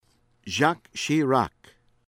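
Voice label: "Jacques Chirac" from France pronunciation, US English; Voice of America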